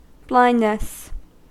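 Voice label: "blindness", English, American accent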